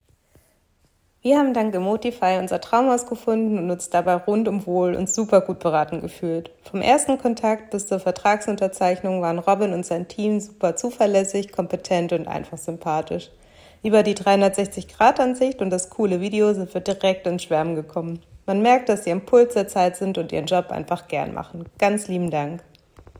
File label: testimonial